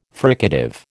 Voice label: "fricative", American

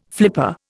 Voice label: "flipper", Indian

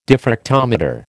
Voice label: "diffractometer", American

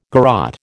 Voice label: "garrotte", American

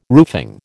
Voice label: "roofing", American

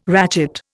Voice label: "ratchet", Indian

